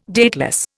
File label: "dateless", Indian